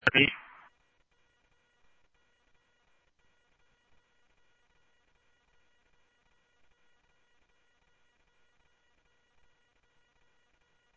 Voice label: Simulcast Police Dep, scanner audio trunk; Mesa Simulcast; MESA PD 3 Red Mt; MesaPoliceDep